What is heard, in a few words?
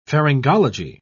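"pharyngology", British English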